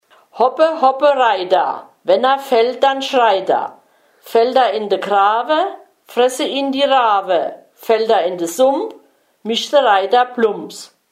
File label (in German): kinderreim hoppe hoppe reiter; Unzenberg